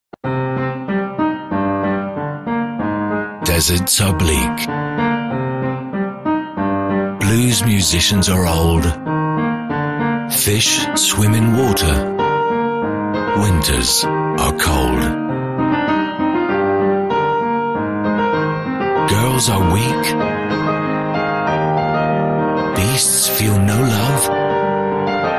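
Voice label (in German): UK English Werbung Lebendig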